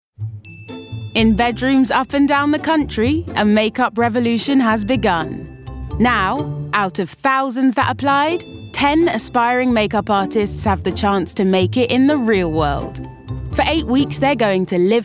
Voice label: doco; new music